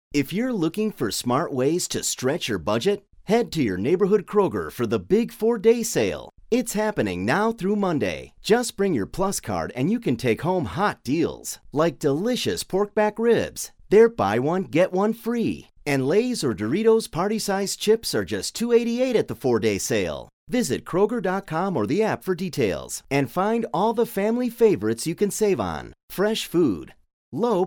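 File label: American English, male, voice over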